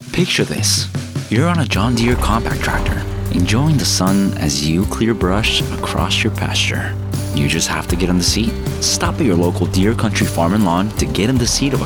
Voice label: English, Commercial